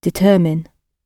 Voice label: "determine", gb

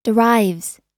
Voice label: "derives", us

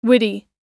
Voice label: witty, us

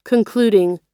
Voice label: concluding, us

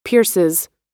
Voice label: "pierces", US

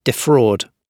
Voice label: "defraud", gb

